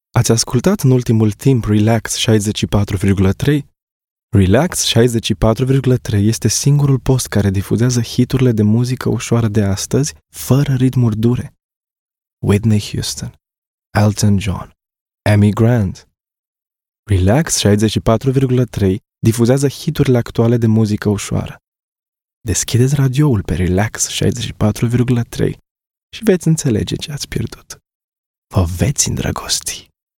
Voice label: Romanian